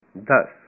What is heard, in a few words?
Punjabi, ten